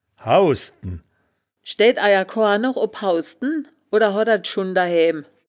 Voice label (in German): "haussden", wittlich